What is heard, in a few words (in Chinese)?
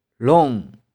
"lung1", 玉環